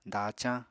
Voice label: "dacañ", Apatani; Material Culture